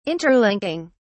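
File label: "interlinking", English, British English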